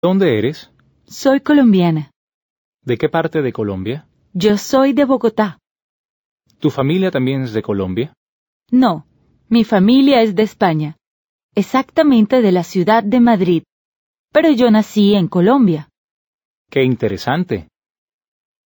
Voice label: Dialogue, Spanish